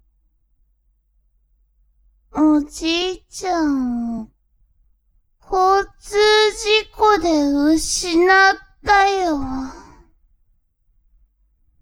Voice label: woman